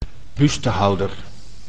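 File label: "bustehouder", Dutch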